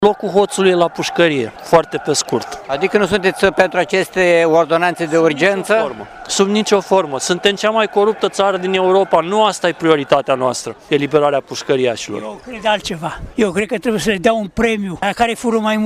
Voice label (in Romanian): vox pop, proteste la Iasi; proiectele de ordonanta privind amnistia si gratierea